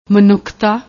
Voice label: "manookhtaa", Punjabi; ਮਨੁੱਖਤਾ